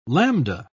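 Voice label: "lambda", British English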